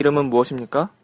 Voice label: name, Korean

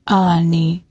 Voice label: hello, ojibwe; Aniin

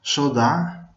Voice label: i'm from, venetian

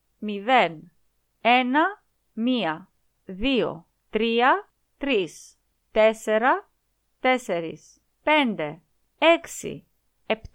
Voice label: numbers cardinal, Greek

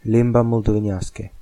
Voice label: moldovan; limba moldovenească

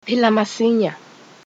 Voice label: get well soon zulu; Phila masinya

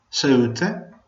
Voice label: cheers, Venetian

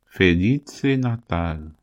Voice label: christmas, interlingua

